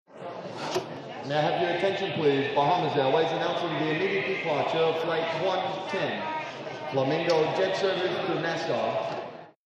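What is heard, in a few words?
Flight Announcement, airport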